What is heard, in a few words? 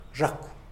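"zhakw", Tlacochahuaya Zapotec; cockroach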